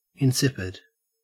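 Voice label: "insipid", Australian English; pronunciations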